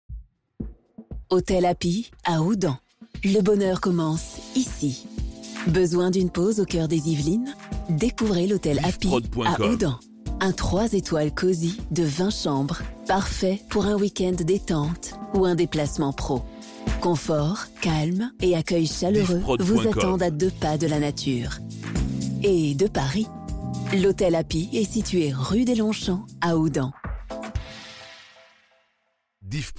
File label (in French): Spot Hotel, publicite; Hapy Houdan